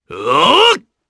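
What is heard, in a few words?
Vox Casting, Japanese; kingsraid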